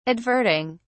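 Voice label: "adverting", British English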